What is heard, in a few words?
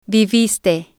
"viviste", Spanish